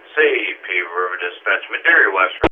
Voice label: Medary Radio